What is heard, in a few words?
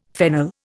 "fennel", Indian